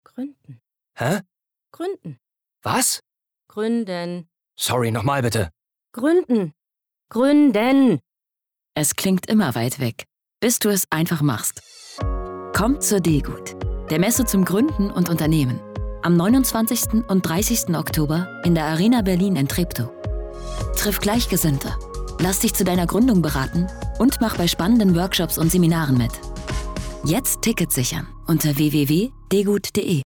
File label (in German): Funkspot; deGUT Gruenden